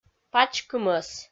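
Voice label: "пач кымӧс", Komi, female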